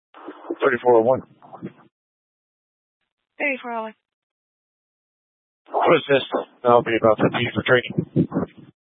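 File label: Incident Tactical 3, Scanner audio recording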